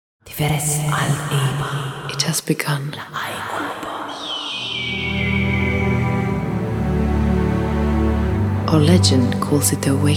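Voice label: British English Voice Over Fantasy Movie; Legend of Elysia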